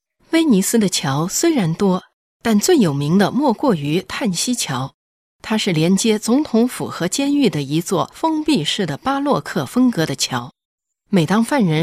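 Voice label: ZH General, Chinese; GoLocalise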